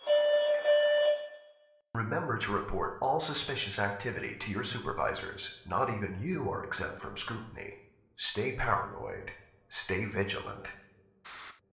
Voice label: Announcement